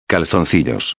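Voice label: "calzoncillos", Spanish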